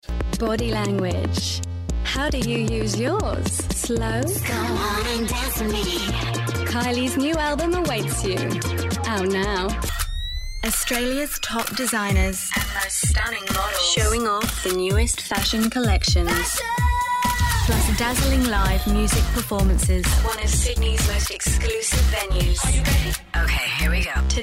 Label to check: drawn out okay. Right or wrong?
Wrong